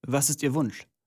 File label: german voice; wyrmsun